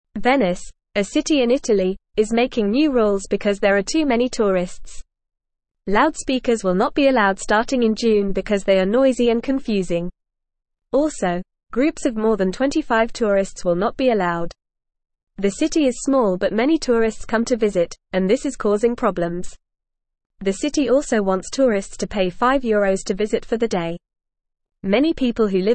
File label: Lower Intermediate FAST Reading, English; Venice Makes New Rules to Manage Visitors; English Newsroom